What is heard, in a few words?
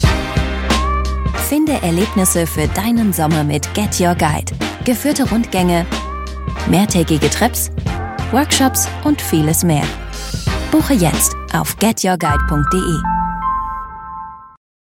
german female commercial